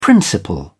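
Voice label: "principle", British English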